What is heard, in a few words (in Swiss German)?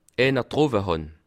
"drowe", alsace